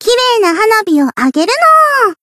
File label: variable chant jp; lele